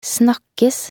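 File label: "snakkes", Norwegian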